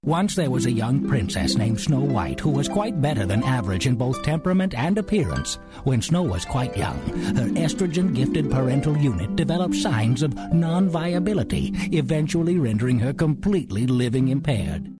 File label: Storyteller